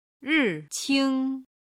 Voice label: "riqing", Chinese

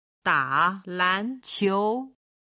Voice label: "da3lan2qiu2", Chinese

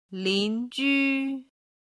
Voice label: "lin2ju1", chinese

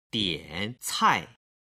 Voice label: "diancai", Chinese

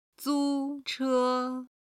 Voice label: "zuche", chinese

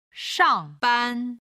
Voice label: "shang4ban1", Chinese

